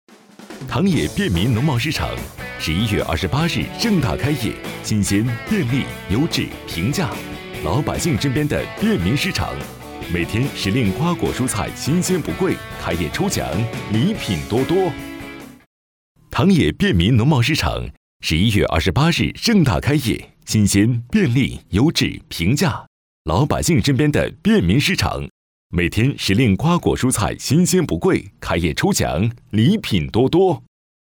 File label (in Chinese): 广告轻松促销